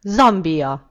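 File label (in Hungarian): "zambia", Hungarian; gcompris; geography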